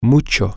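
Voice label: a lot, Spanish, male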